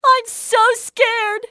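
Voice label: scared, english female; XBOX; civ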